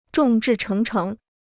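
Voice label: "众志成城", Chinese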